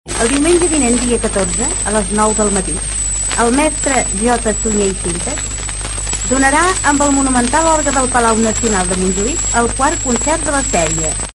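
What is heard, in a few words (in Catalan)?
Ràdio Barcelona anunci concert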